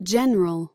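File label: "general", American English; pronunciations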